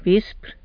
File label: "byispr", tlacolula; eve